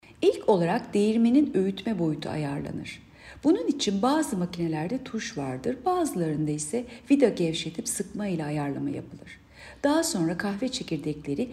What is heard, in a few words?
turkce